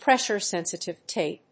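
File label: "pressure sensitive tape", English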